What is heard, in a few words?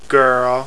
girl